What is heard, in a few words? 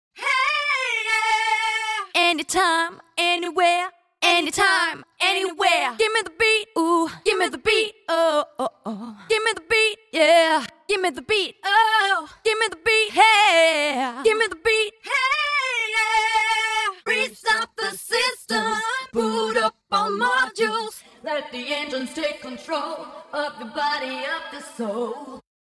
Female Control Slogan